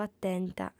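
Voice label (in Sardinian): "atenta", female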